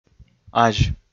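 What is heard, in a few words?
"азь", Komi, male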